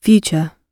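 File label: "future", British English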